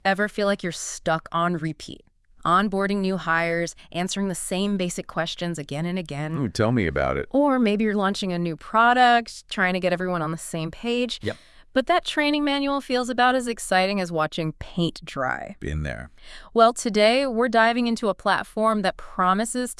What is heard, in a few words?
Podcast; Podcast Trainer Button